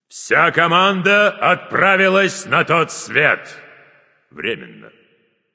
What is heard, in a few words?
"deicide", Russian; cstrike; Announcer Killstreak